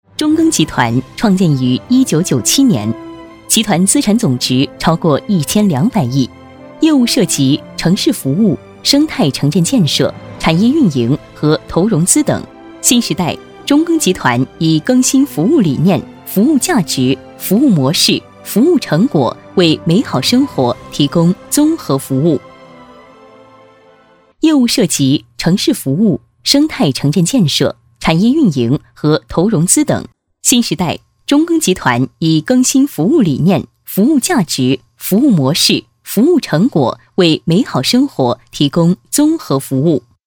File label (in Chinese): 女 企业宣传; 中庚集团